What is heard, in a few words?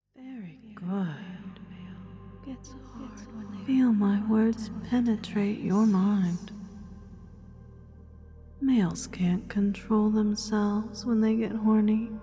female